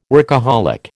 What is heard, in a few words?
"workaholic", American